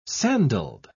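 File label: "sandalled", British English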